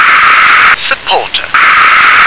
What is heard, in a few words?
"supporter", English